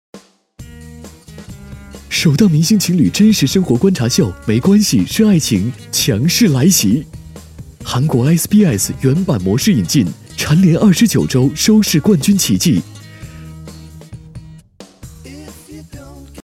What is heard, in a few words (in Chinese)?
宣传片; 爱奇艺; 没关系-是爱情